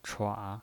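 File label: "chua", Mandarin Chinese, third tone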